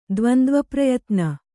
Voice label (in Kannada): "ದ್ವಂದ್ವಪ್ರಯತ್ನ", Kannada